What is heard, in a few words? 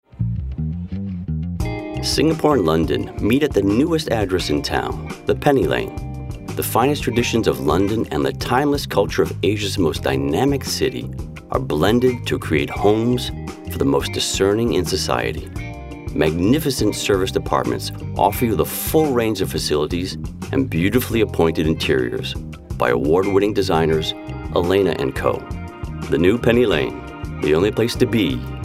US English; Property